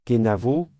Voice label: goodbye, Breton; Kenavo; Parting phrases

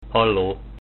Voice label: hello, Hungarian

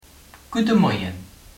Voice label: good morning, luxembourgish

